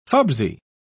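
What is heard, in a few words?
"fubsy", British English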